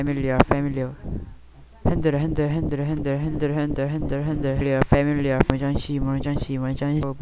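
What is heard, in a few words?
"handle", spoken word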